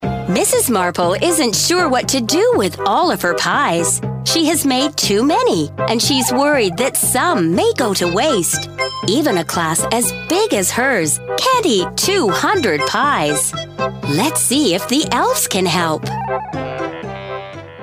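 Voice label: American English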